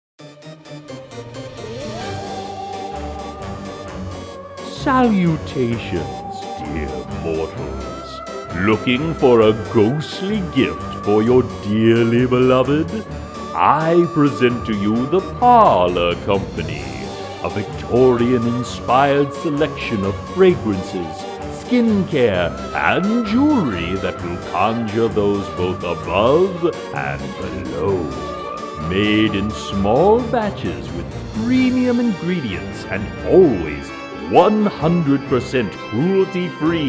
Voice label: ad; parlor co